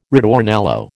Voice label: "ritornello", American